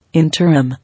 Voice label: "Interim", English